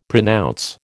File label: "pronounce", American